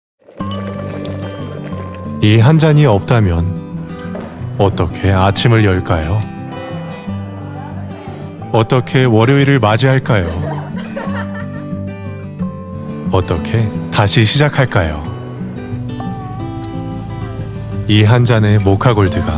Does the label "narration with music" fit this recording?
No